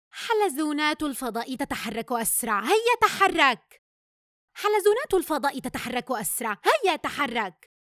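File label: Alien Play fast; Gaming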